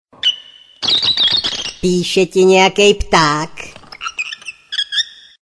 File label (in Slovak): sms hlásky cz